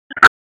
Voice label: Police Tac, p25; Raleigh; Southeast District Tac 1; wake